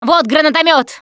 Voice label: grenade launcher, russian, Survivor Voice Producer